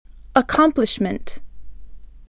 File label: "accomplishment", English voice